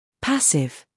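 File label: "scandal", American; passive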